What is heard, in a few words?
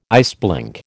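"iceblink", American English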